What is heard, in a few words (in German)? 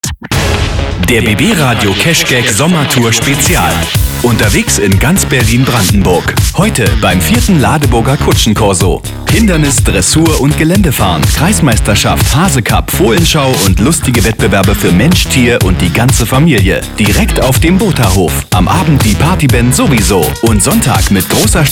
Radiospot Kutschencorso Kind